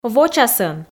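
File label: "вочасӧн", Komi, female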